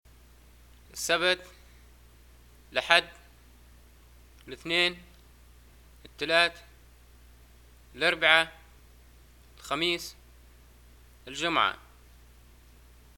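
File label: days, Arabic, Libya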